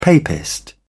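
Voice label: "papist", British English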